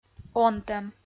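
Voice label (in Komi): "онтӧм", Komi, female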